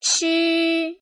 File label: "chi", pinyin